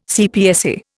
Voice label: "CPSA", Indian